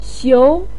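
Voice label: "xiu" second tone, Mandarin Chinese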